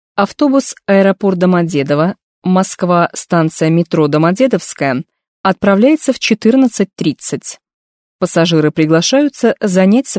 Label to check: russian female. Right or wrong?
Right